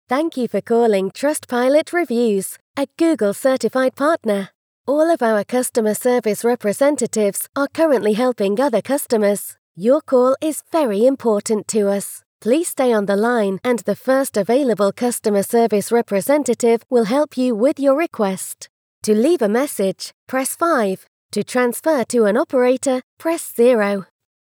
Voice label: english british ivr